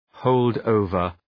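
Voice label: "hold over", English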